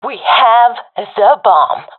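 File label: see bomb pickup, professional female; vo; Counter Strike Model Pack; CS:GO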